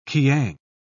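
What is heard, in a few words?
"kiang", British English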